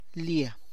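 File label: girl's title Miss Señorita, Tlacochahuaya Zapotec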